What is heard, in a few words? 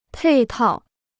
"配套", Chinese